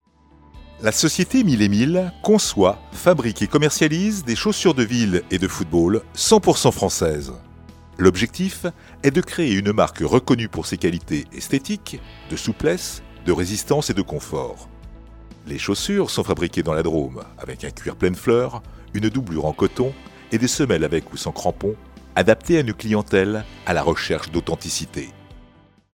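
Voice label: French, male, corporate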